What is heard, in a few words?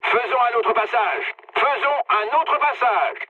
bomb failure mood med, speech fr; War Thunder